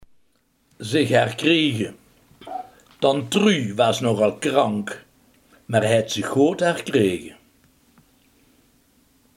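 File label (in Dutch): herstellen, Limburgse gesproken woordenboeken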